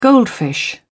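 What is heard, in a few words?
"goldfish", British English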